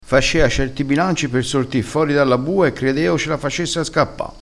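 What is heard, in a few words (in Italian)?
"fori", vocabolario dialettale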